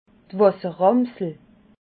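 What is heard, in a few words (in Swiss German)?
"wasseramsle", Alsatian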